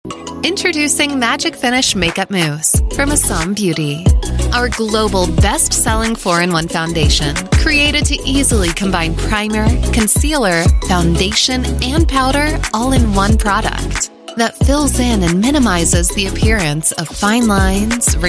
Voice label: US English; Magic Finish Makeup Mousse by Asambeauty US